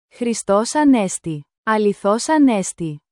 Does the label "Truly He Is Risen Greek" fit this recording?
Yes